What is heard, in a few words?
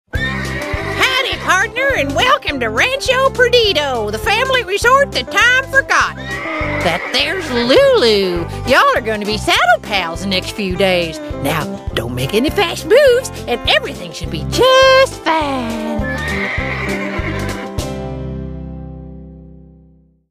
noisy, narrator